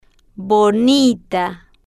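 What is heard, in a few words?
"bo ni ta" Spanish, female, slow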